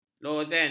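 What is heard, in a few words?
"lozeng", Malay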